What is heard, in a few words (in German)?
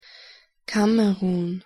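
"kamerun", German; pronunciation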